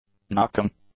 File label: "knocking", English; nouns